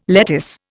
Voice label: "lettuce", Indian